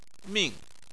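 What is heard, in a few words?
"ming", Chinese, fourth tone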